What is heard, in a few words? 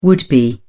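"would be", British English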